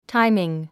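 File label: "timing", English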